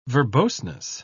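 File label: "verboseness", British English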